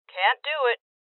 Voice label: Voices Refuse Female; rebel cops 2019 gamerip